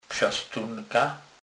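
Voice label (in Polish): "psastunka", Kurpie dialect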